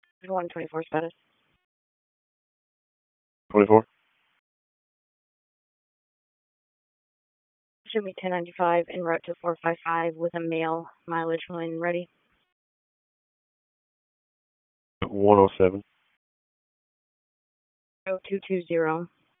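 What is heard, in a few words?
Police Southwest District Dispatch, p25; Raleigh; wake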